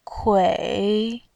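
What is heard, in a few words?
"kui3", Mandarin Chinese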